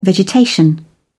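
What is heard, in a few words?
"vegetation", British English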